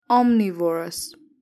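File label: "omnivorous", English; grade3; animal feeding habit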